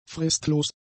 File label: "fristlos", German; adjectives